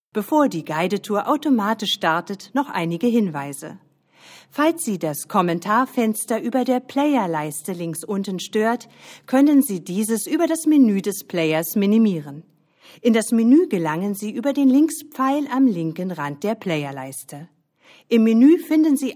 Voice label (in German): e-learning